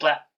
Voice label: blah, silly sound effects